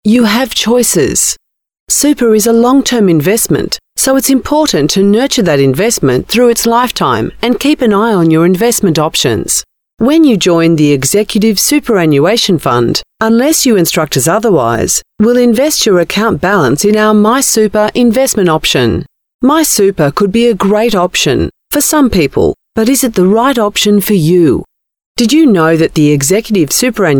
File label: female talent corporate